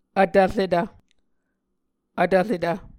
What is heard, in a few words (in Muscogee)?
"vtvretv", Muscogee